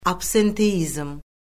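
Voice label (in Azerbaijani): "absenteizm", Azerbaijani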